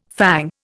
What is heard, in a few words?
"fang", Indian